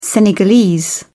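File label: "senegalese", English, British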